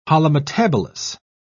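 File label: "holometabolous", British English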